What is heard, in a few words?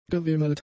"gewimmelt", German; adjectives